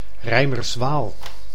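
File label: "Reimerswaal", Dutch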